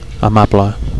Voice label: "amable", Catalan

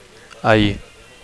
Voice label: "ahir", Catalan; yesterday

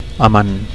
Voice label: "amant", Catalan

